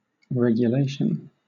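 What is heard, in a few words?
"regulation", British English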